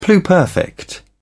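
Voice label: "pluperfect", British English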